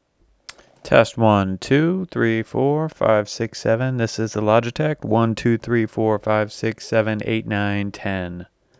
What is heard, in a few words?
logitech desktop usb mic